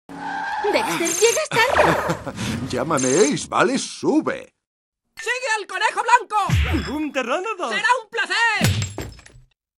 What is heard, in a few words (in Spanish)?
doblaje